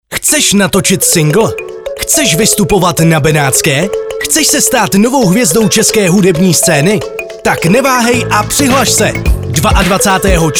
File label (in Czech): Reklamni spot; Tulifest; sdruzeni tulipan